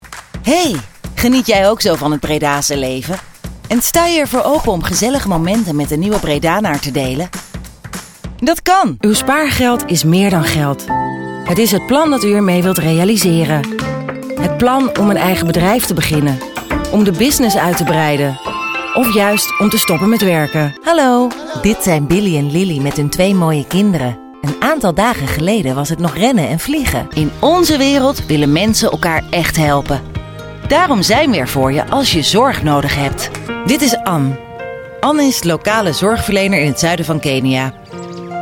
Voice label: Corporate